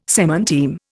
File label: "semanteme", Indian